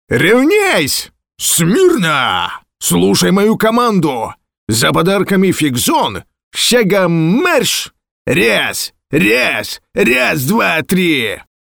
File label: russian